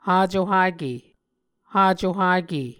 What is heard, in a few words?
"hacohake", muscogee